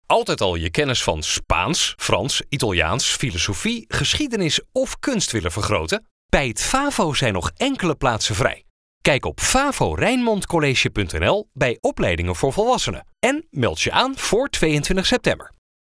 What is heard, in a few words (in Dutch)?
radiocommercial; vavo college; rijnmond